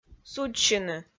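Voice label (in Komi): "судзсьыны", Komi, female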